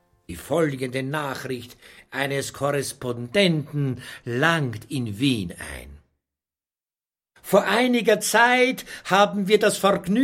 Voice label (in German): Hörbuch; pleyel